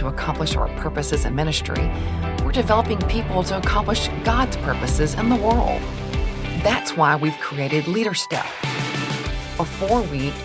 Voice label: church annoucements; Valley Creek Church